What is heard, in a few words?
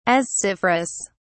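"asciferous", British English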